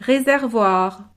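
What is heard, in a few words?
"reservoir", French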